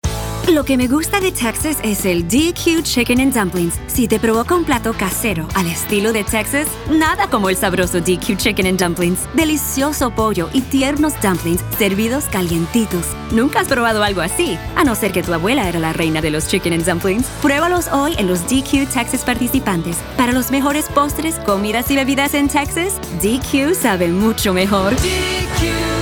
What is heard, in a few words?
Spanish Radio